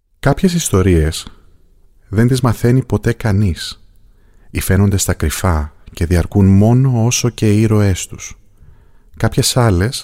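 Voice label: Greek audiobook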